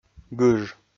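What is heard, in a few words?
"гыж", Komi, male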